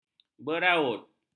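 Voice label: "beraut", Malay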